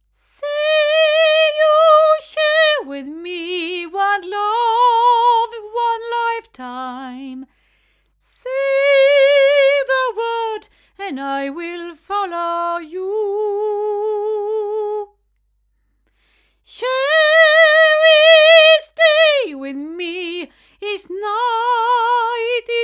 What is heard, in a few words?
BeyerDynamic MCE90 female